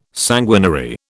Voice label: "sanguinary", British

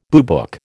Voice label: "boobook", American

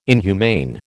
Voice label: "inhumane", American

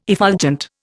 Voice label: "effulgent", Indian

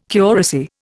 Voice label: "curacy", Indian

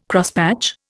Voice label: "Crosspatch", Indian

